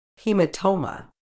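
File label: "haematoma", British English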